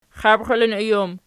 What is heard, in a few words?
xabxilinoj pl, archi